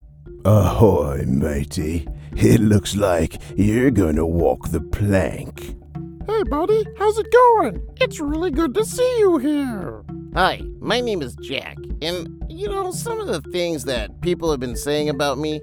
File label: Character Voice